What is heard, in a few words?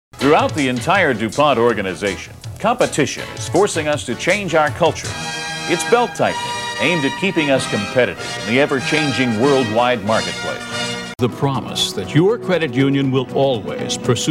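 narration, male voice talent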